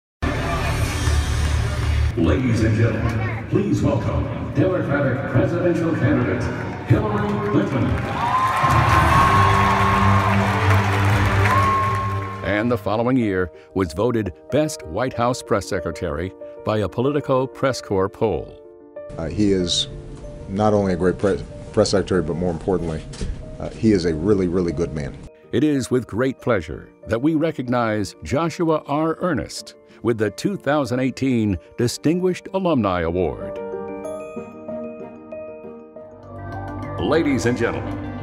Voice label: announcers men; show and stadium announcers